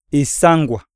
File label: vomit, iyasa